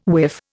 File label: "whiff", Indian